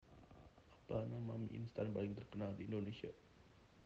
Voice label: indonesian prompt